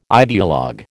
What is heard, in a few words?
"ideologue", American